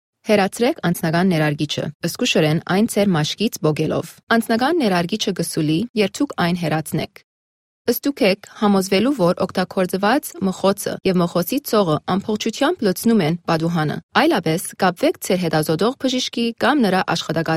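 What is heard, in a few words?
Armenian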